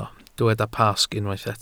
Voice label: "Pasg", Welsh

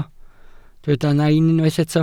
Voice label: "nain", Welsh

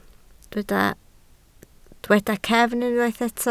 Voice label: "cefn", Welsh

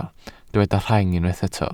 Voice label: "rheng", welsh

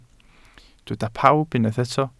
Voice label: "pawb", Welsh

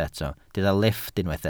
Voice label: "lifft", Welsh

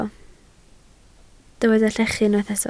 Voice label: "llechu", welsh

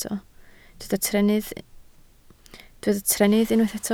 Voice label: "trennydd", Welsh

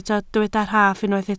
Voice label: "rhaff", Welsh

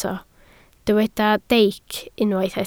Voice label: "deic", Welsh

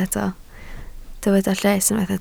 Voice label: "lles", Welsh

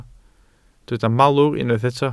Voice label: "malwr", Welsh

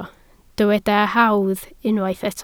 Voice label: "hawdd", Welsh

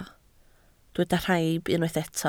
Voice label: "rhaib", Welsh

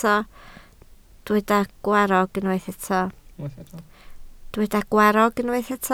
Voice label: "gwarrog", Welsh

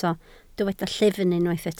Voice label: "llyfn", Welsh

